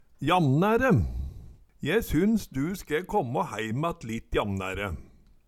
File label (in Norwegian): jamnære, Numedalsmål